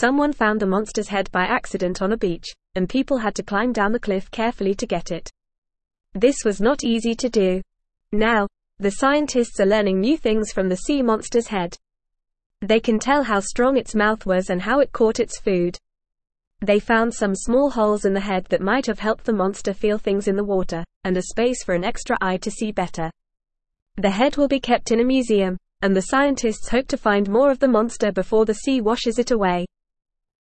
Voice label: English Lower Intermediate FAST Reading